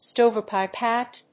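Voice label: "stovepipe hat", English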